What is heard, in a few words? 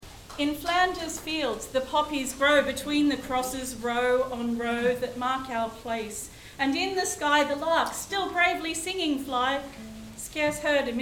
Poem; ww1 nz; In Flanders Field